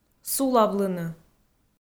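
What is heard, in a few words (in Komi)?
"сулавлыны", Komi, female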